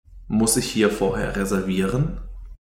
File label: deutsch listening